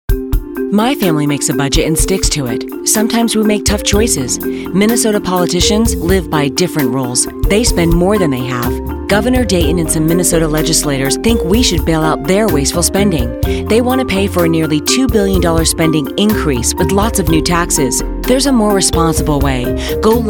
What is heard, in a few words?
Political, female voice talent